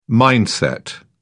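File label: "mindset", British English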